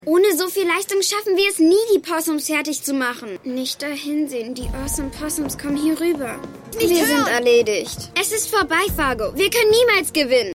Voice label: Maedchen; Stimmproben; Radical Racoon; Eureka

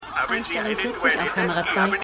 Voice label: Regieraumfrau; Funny Woman

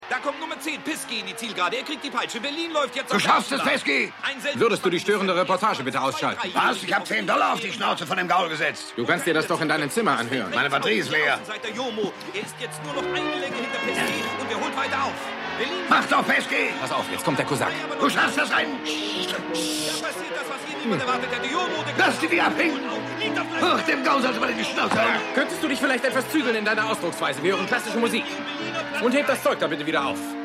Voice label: Sportkommentator; Maennerwirtschaft

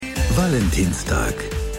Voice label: Sprecher, Stimmproben; Valentinstag

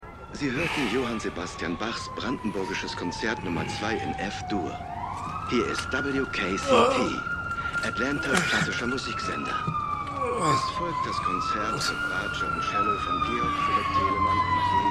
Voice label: Radiosprecher; Ptolemy Grey

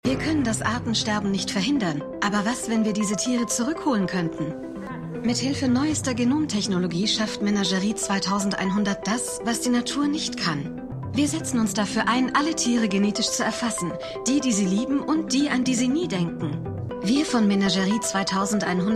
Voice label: Sprecherin; Menagerie 2100; Extrapolations

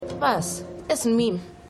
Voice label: Frau Mit T Shirt; Inventing Anna

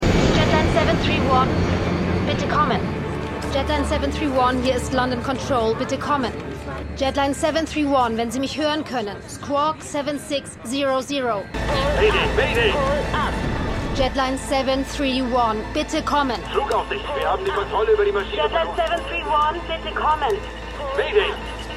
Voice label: Funkerin Wbl Computer Pilot Angreifer Fahrer, Stimmproben; Liaison

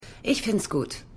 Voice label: Assistentin; Madam Secretary

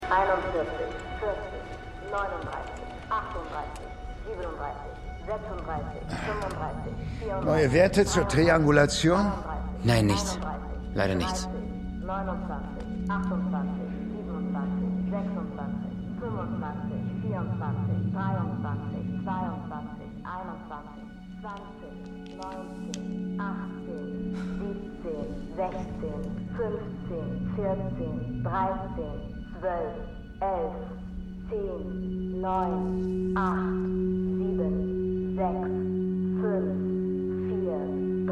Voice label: Countdown Stimme; Constellation

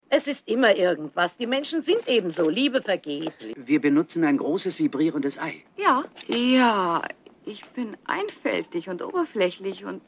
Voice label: Aeltere Frau Strasse Aelterer Mann Juengere Frau; Der Stadtneurotiker

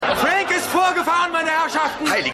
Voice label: Stimme Mann; Stimmproben

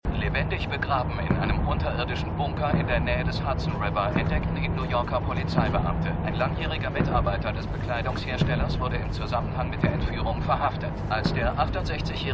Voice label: Nachrichtensprecher Taxifahrer; Stimmproben; City On A Hill

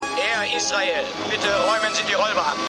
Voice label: Maennl Durchsage; Stimmproben; AirPlane

